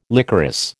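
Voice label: "licorice", American English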